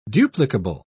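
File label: "duplicable", British English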